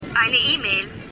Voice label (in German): Computerstimme; X Factor